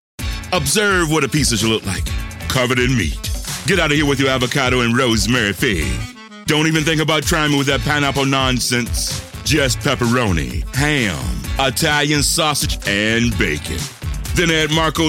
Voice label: Commercial